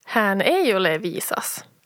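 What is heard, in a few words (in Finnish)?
"Haan ei ole viisas", Finnish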